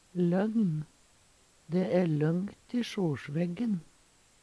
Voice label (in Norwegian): "løgn", Numedalsmål